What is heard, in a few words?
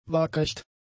"waagrecht", German; adjectives